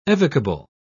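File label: "evocable", British English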